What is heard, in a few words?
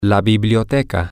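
the Library, male, spanish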